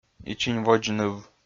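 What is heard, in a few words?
"ичиньводз ныв", Komi-Zyrian, male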